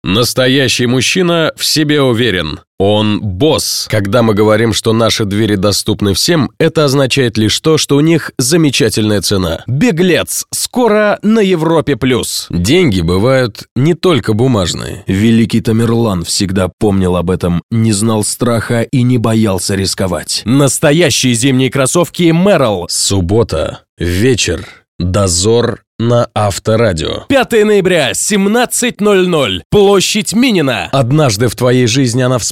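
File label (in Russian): мощный "драйвовый" голос с "мясом", "сказал, как отрезал", голос, внушающий уважение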